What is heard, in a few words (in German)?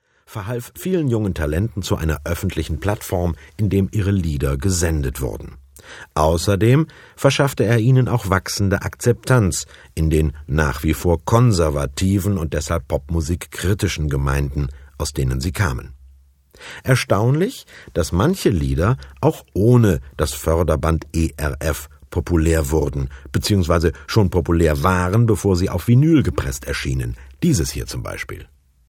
moderation